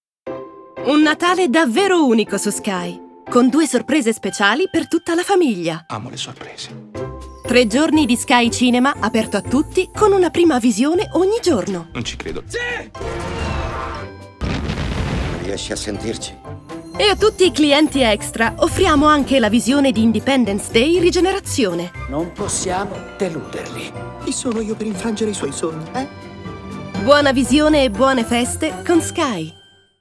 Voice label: Werbung CH IT

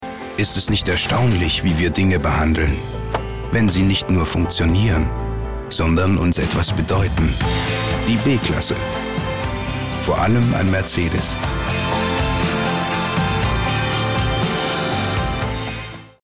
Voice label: Werbung CH DE

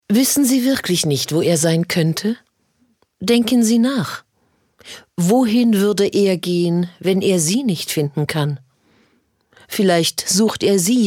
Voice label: Hoerspiel CH DE; hochdeutsch

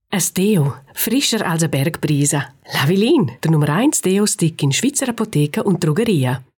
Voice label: Werbung CH GR, hoch

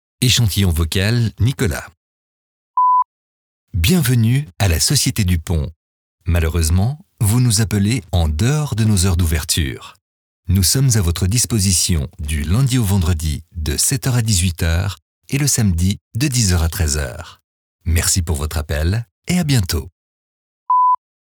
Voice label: Telefonansage FR CH

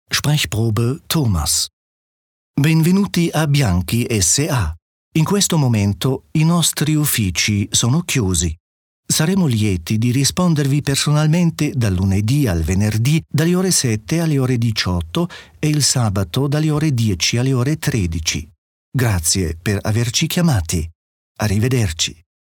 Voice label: Telefon IT CH

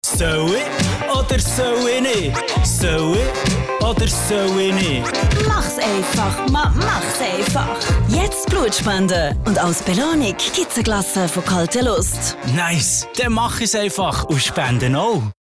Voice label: Werbung CH BE; flippig frech verspielt

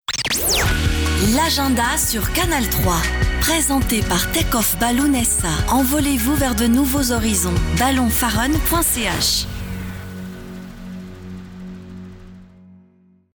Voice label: Werbung FR CH

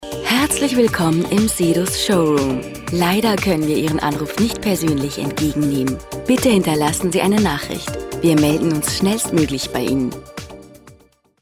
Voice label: Telefonansage CH DE; hochdeutsch

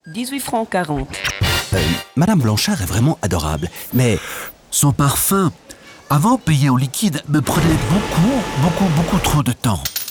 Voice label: Werbung FR CH, franzoesisch schweiz

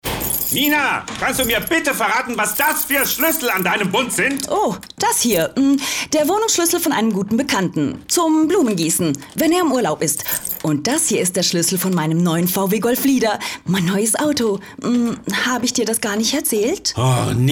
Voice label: Werbung DE CH

